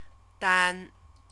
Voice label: "dan", Chinese